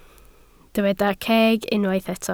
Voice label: "ceg", Welsh